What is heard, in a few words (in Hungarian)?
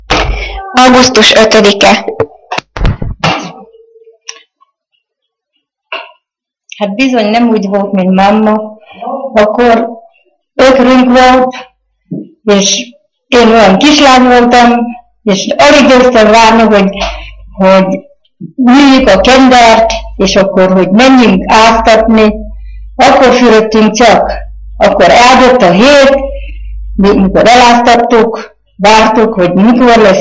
nyelvjaras gyujtesek